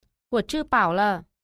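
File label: "wo chi bao le", Mandarin Chinese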